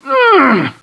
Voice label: commando pain